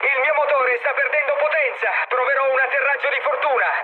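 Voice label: Italian damaged engine mood med, speech; War Thunder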